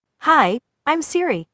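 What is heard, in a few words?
US English; iOS Sounds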